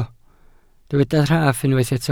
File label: "rhaff", Welsh